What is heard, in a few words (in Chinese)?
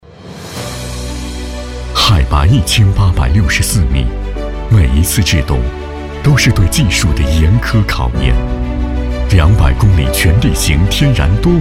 男 工程汇报; 黄山路试车